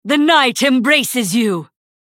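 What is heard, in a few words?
Hero Critical Hit US English, Женщина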